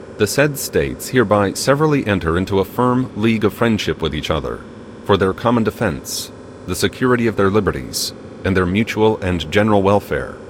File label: male voice reconverted from image